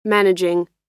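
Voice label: "managing", US English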